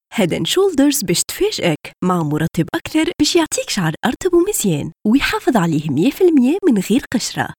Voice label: Arapca Kadin Tunus Arapcasi, seslendirme; kadin sesler

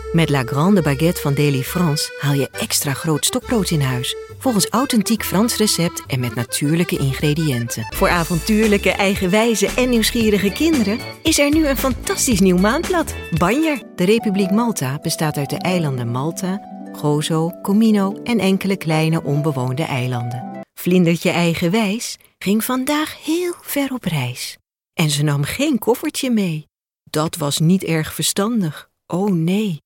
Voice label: Hollanda Kadin Felemenkce; seslendirme

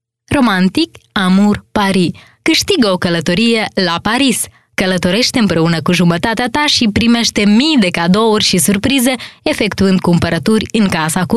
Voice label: Moldovyaca Kadin; moldovyaca seslendirme; kadin sesler

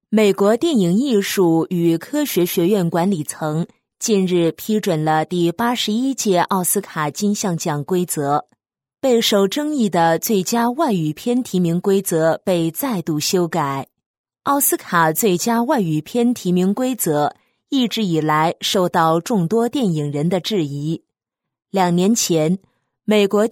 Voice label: Cince Kadin Mandarin Cin Simplified, seslendirme; pekin

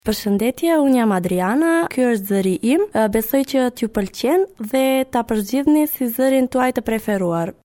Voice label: Arnavutluk Kadin, arnavutca seslendirme

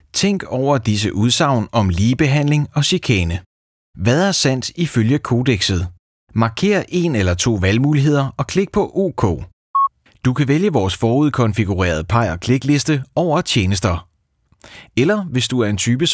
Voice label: Danca Erkek; seslendirme

